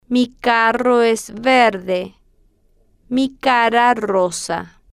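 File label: mi carro es verde mi cara rosa, Spanish, female, slow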